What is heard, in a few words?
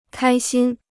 "开心", Chinese